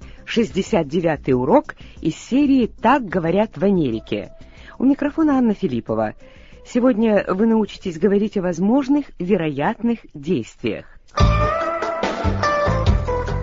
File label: russian english